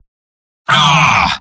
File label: negative vocalization; Spy; mvm